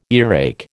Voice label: "earache", American